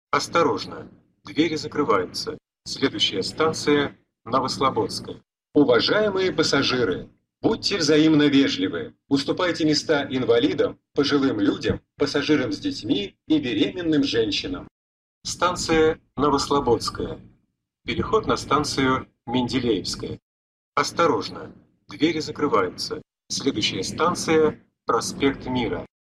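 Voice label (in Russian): диктор в московском метро кольцевая линия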